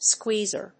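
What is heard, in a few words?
"squeezer", English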